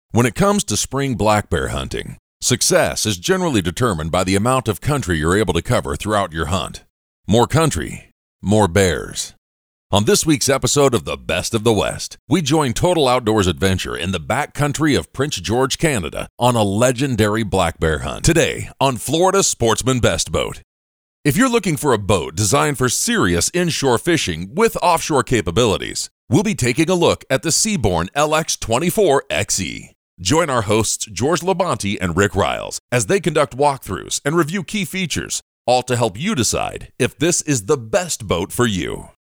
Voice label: english american narration